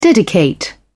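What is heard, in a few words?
"dedicate", British English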